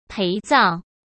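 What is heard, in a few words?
"陪葬", Mandarin Chinese; chinese-flashcards; hsk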